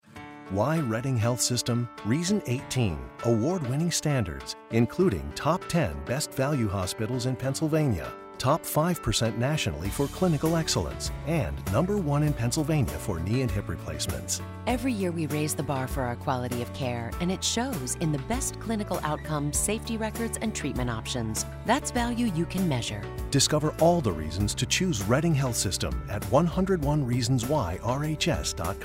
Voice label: Radio Spot; Reading Health Sys